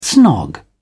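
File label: "snog", British English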